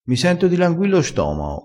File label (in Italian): "dilangui", crespinese